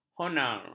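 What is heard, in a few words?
"honar", Malay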